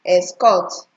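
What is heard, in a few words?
"escort", Nigerian Pidgin, female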